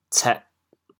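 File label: "cêh4", Teochew, chaoyang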